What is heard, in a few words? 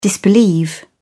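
"disbelieve", British English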